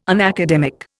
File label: "unacademic", Indian